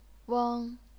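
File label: "weng", chinese pinyin, first tone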